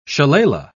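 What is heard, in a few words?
"shillalah", British English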